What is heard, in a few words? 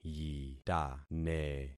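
"yitane", concatenated syllables; Affixing experiment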